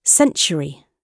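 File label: "century", British English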